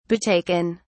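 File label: "betaken", British English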